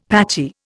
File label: "patchy", Indian; Dictionary